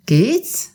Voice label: how are you, alsatian